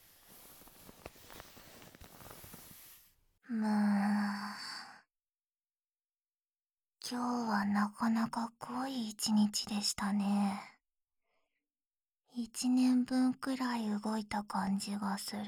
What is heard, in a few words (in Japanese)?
夜伽の後のだらだら添い寝で安眠（バイノーラルマイク 効果音あり）